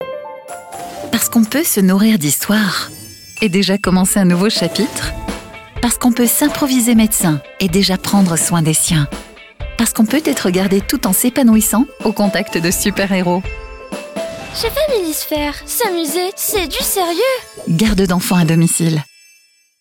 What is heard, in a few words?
video corporate voix off; family sphere